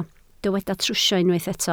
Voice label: "trwsio", Welsh